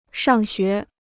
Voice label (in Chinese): "上学", Chinese